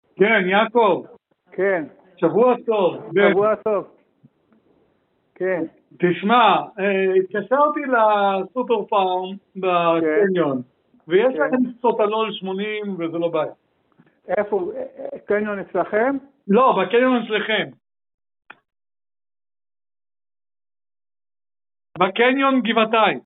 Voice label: Call recording